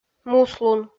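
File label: "муслун", Komi, female; kpv audio dict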